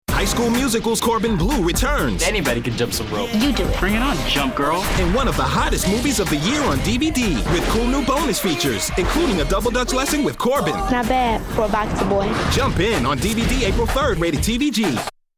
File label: trailer spot